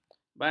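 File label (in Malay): "bancuh", Malay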